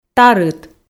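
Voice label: "тарыт", Komi, female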